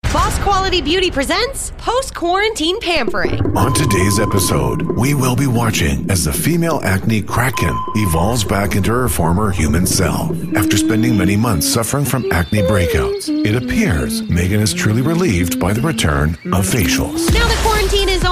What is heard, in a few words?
Radio